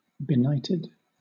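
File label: "benighted", British English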